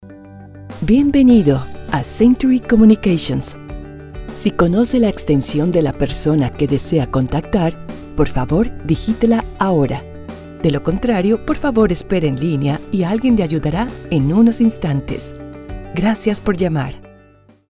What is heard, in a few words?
Phone